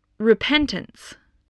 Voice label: "repentance", English

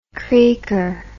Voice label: "creaker", English

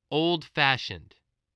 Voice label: "old-fashioned", voice, spelling

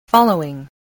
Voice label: "following", English voice, spelling

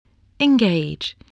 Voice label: "engage", spelling

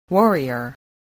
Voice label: "warrior", spelling

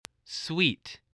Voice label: "suite", spelling

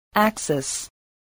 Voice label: "axis", english

